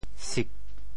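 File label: "sik4", Teochew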